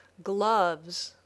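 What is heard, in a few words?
"gloves", English word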